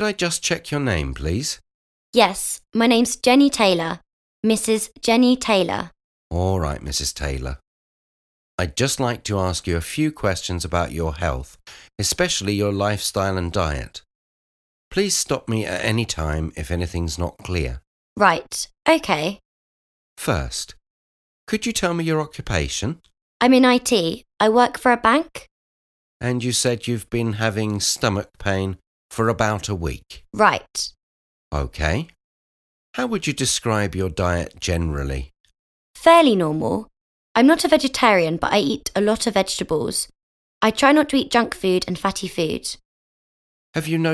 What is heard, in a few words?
Conversation; Bedside Manner Beginner